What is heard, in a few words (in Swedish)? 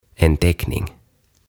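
"en teckning", Swedish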